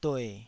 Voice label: "due3", Taiwanese

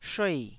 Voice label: "shuei4", taiwanese Syllables

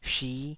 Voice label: "shi5", taiwanese Syllables

